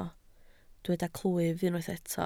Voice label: "clwyf", Welsh